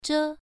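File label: "zhe1", Chinese pronunciation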